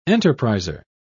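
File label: "enterpriser", English, British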